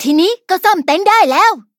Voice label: level up, th; cookie run

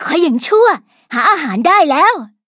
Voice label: levelup, th; Cookie Run

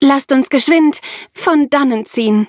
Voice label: loading, de; Cookie Run

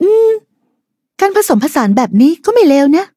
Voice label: level up, th; cookie run

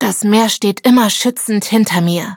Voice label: battle, de; cookie run

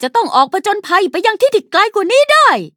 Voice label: level up, th; Cookie Run

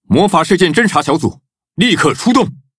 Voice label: loading, zh-hant; Cookie Run

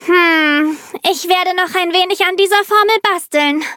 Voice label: loading, de; Cookie Run

